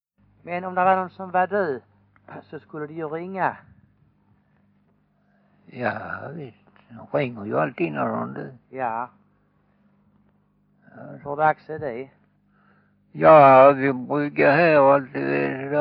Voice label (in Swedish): Färingtofta, dialekter; Folkminnen